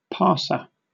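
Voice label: "parser", British English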